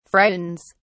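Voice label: "frightens", British English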